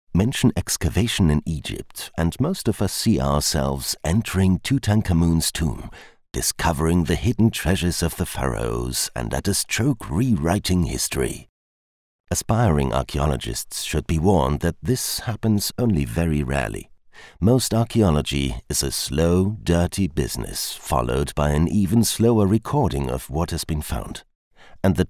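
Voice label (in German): eng audio guide lively, Sprecher; Sprecher in Berlin; Muttersprache Deutsch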